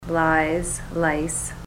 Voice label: lies lice, ontario

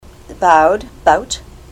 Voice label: bowed bout, ontario

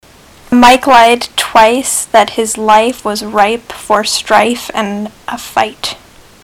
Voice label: mike lied twice, ontario

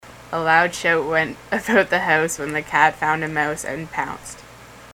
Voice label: a loud shout, ontario; mouth set

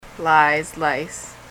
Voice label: lies lice, ontario

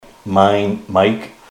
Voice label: mine, ontario

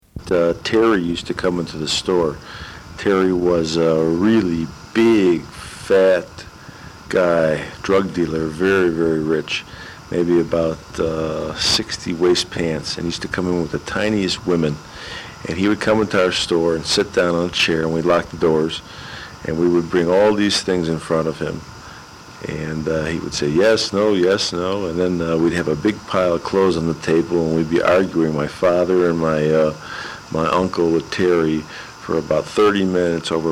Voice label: dialects chicago; speech

